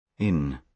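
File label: "in", British English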